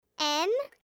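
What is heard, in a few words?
"M", French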